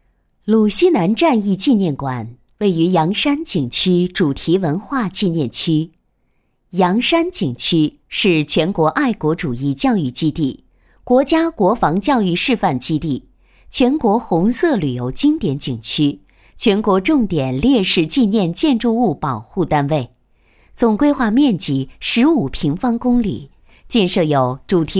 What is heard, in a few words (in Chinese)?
女 解说 正式大气